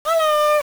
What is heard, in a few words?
high hello; garrysmod; taunts; props custom